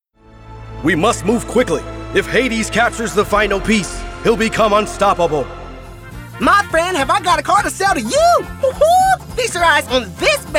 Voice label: Voice Actor; BigMouth Voices